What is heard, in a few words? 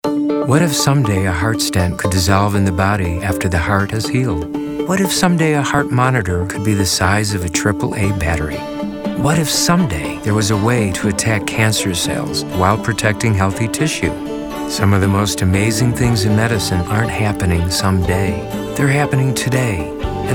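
narration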